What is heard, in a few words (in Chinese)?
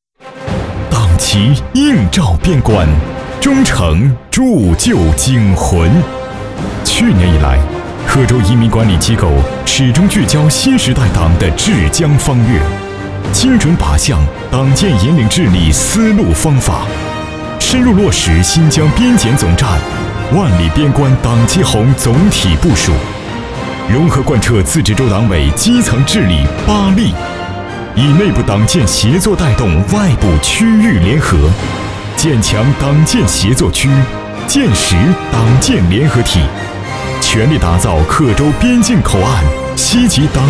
男 大气专题